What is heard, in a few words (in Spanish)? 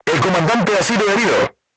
voice message commander wounded, Spanish